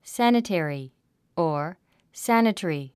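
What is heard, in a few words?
"sanitary", English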